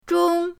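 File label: "zhong1", Mandarin Chinese, pinyin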